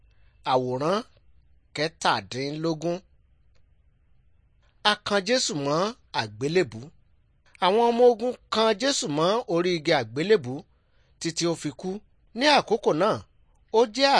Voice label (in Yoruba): good news yoruba